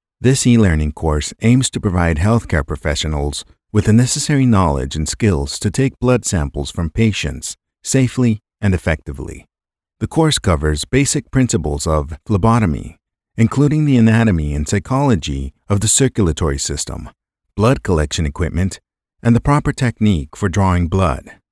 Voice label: Phlebotomy eLearning Modules